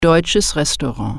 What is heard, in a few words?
"restaurant", german